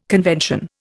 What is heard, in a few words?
"convention", Indian